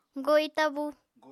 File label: "goi tabú", Apatani